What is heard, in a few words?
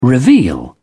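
"reveal", British English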